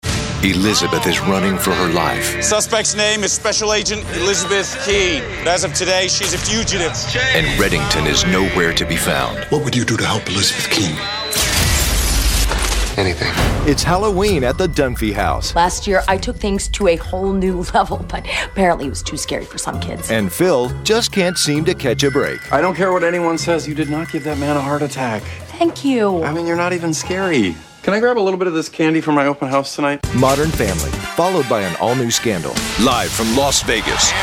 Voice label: promo